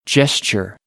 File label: "gesture", American; English; ɚ - əɹ